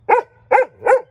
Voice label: happy; fertig classic games; kona